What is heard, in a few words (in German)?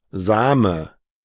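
"Same", German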